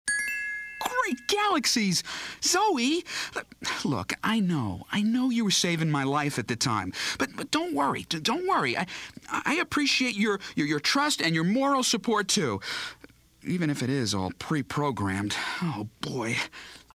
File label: Young Wiz Kid, cartoon; animation